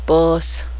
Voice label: cat, cheyenne language